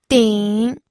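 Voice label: "ding", pinyin, third tone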